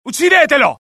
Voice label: alert, dialogue IT; mohaa; attack